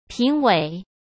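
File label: "评委", Mandarin Chinese; hsk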